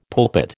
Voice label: "pulpit", American

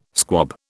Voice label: "squab", British

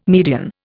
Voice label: "median", Indian

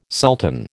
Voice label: "sultan", American

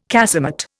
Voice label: "casemate", Indian

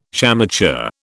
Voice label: "shamateur", British

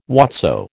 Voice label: "whatso", American

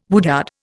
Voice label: "woodyard", Indian

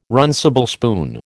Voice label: "runcible spoon", American

